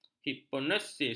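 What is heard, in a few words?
"hipnosis", Malay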